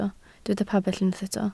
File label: "pabell", Welsh